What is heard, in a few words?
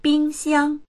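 "bing xiang", Mandarin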